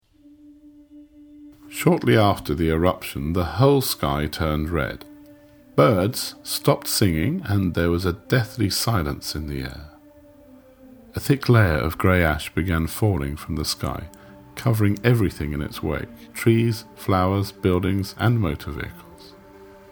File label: Documentary General